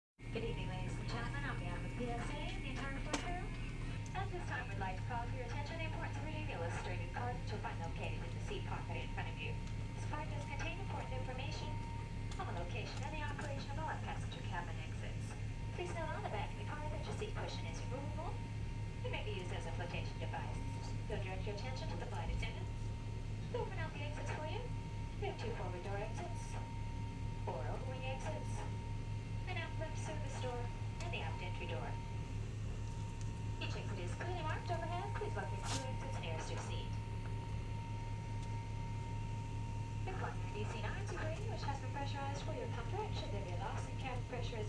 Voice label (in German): englische bordansage und kabinengeräusche; Am Flughafen